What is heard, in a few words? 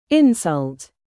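"live", verb, British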